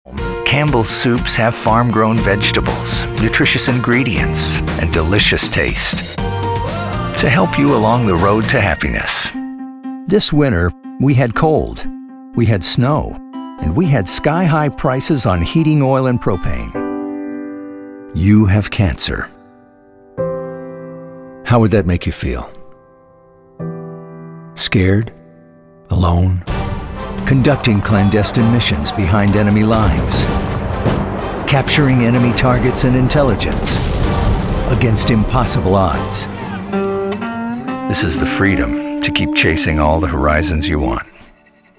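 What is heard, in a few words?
commercial, Voiceover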